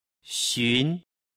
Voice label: "xun", Chinese